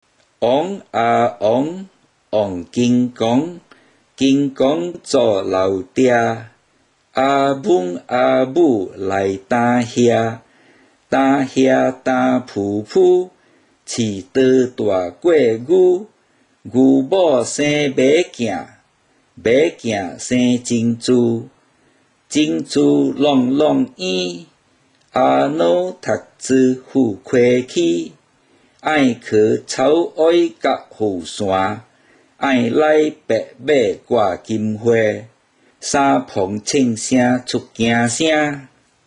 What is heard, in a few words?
Rock Rock Baby Read, Teochew Nursery Rhymes